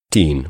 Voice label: new speaker VOT 30, phoneme categorization sounds